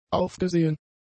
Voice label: "aufgesehen", German; adjectives